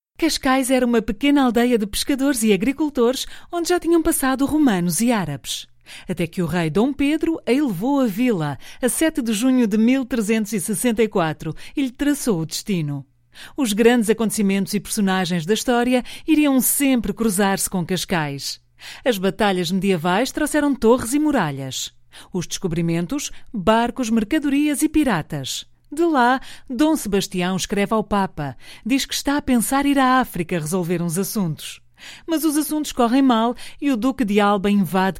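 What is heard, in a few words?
Portuguese female narration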